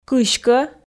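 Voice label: "кыськӧ", Komi, female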